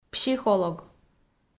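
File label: "психолог", Komi, female; kpv audio dict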